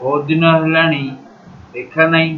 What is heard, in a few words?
long time no see, odia